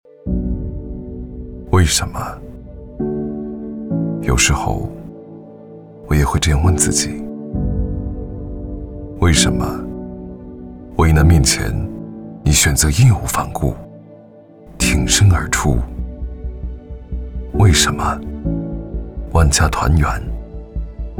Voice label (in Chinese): 男, 公益广告